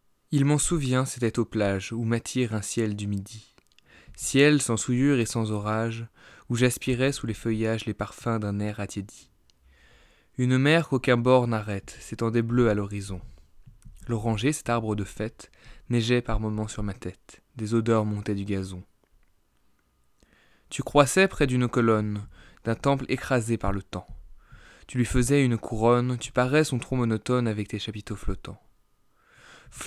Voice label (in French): blue yeti voix